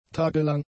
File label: "tagelang", German; adjectives